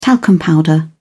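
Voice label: "talcum powder", British English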